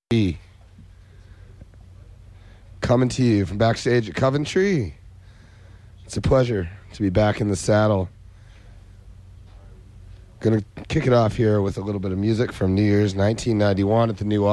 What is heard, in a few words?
radio banter; Coventry